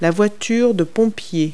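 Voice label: "fire engine", English words; Multi-Lingual Talking Picture Dictionary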